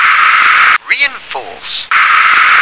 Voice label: "reinforce", English

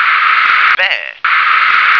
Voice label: "bear", English

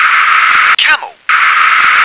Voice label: "camel", English

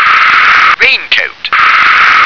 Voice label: "raincoat", English

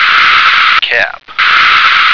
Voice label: "cap", American

